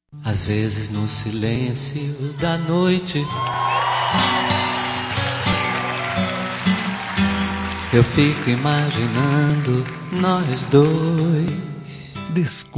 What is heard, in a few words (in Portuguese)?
Romântica Com Desculpas pra Amante, M